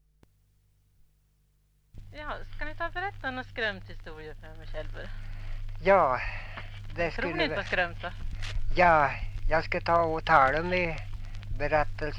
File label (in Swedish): Ljustorp, dialekter; Svenska sagor; Folkminnen